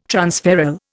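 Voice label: "transferral", Indian English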